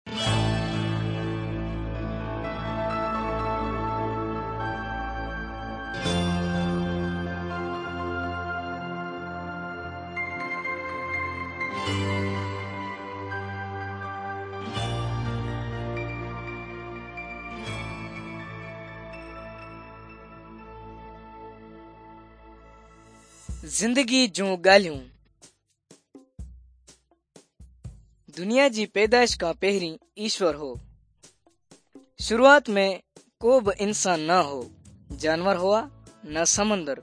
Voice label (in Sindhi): good news sindhi dukslinu, drama